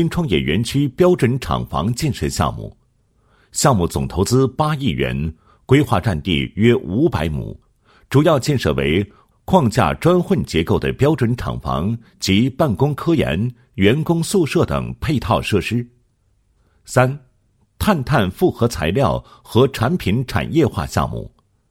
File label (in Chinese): 男 项目 项目介绍解说; 永州